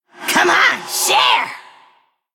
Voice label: Female Forsaken Attack